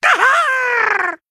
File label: Male Murloc Hero Power